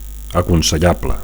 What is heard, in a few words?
"aconsellable", Catalan; advisable